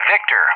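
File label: "Victor", English; JTAC; Alphabet; DCS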